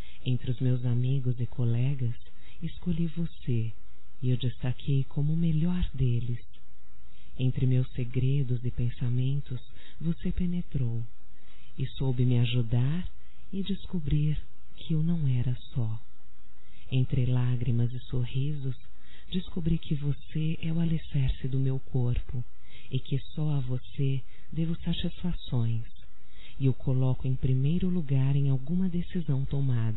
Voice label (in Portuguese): voz Eu Te Encontrei, fem